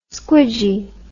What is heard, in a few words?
"squidgy", English